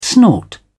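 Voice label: "snort", British English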